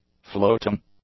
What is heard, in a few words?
"floating", english; adjectives